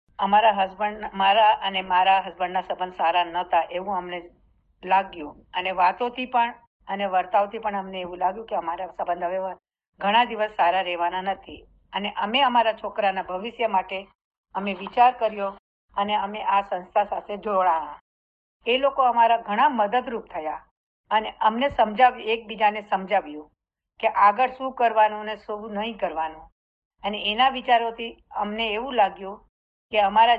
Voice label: Gujarati quote